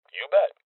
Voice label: Confirmation Male; rebel cops 2019; Voices

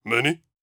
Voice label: surprised

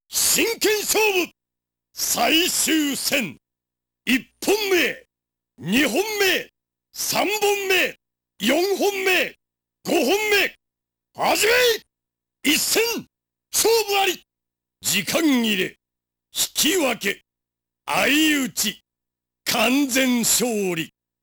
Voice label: Announcer; the last blade 2

